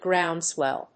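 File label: "groundswell", English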